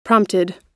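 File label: "prompted", American English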